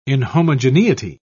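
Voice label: "inhomogeneity", British English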